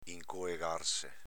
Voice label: "incoegarse", dialetto veneto